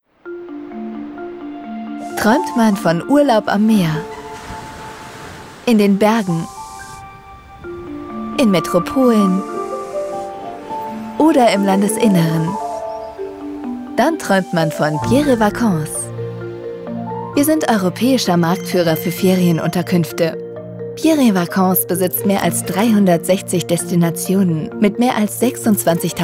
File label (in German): Imagefilm; Imagefilm Pierre & Vacances